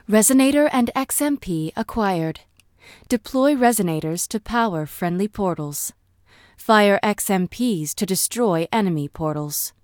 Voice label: speech intro resonator; ingress